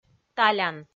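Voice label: "талян", Komi, female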